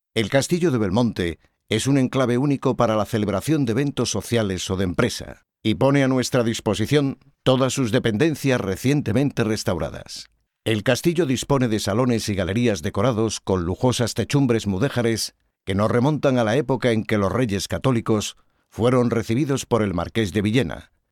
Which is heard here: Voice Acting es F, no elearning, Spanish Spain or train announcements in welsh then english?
Spanish Spain